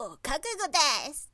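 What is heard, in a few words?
"okakugodesu", woman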